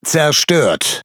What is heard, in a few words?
Speech Pilots Bomber Destroy, DE; World Of Warships